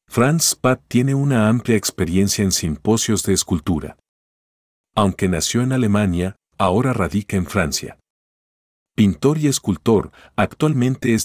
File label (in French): espagnol